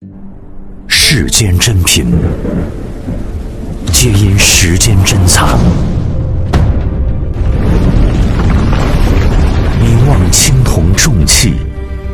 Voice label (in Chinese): 男 广告; 贵州茅台